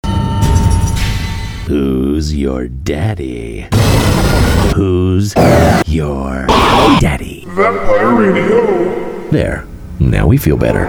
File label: Radio Ad